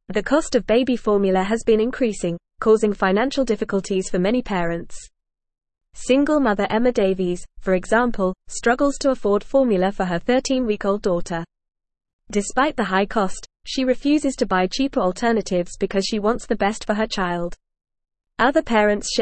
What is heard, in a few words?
English Advanced FAST Reading; English Newsroom; Rising Baby Formula Costs Strain Parents Budgets